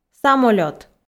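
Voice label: "самолёт", Komi, female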